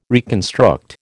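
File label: "reconstruct", American English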